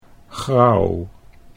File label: "grauw", Dutch